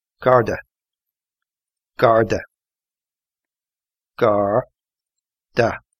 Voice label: police irish